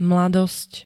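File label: "mladosť", Slovak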